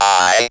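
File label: ROBVOX, robot voice; X-Static Goldmine 1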